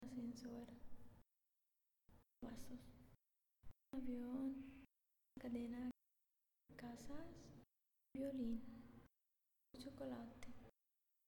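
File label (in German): Zusammengefugte Worter Schnell Spanisch, female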